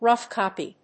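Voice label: "rough copy", English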